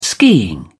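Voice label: "skiing", British English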